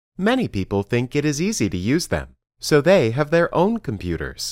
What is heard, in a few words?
Dictation